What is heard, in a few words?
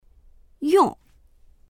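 "yong4", Mandarin Chinese; 用; 使う、用いる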